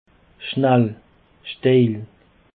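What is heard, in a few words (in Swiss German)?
"schnell", Alsatian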